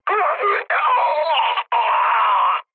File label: damaged pilot, speech cze, mood high; War Thunder